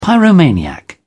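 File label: "pyromaniac", British English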